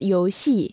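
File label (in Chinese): "游戏" Chinese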